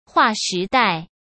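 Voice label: "划时代" Mandarin Chinese; hsk; chinese-flashcards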